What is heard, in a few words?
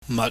"mat", Chinese